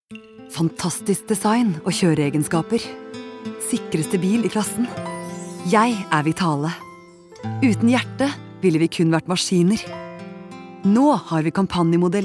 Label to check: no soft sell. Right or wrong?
Right